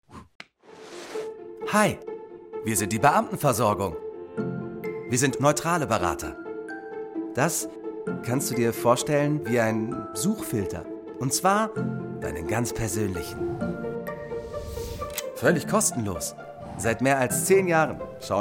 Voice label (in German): Erklärvideo Ton